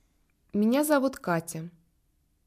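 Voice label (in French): phrase, Russian; phonetique et graphie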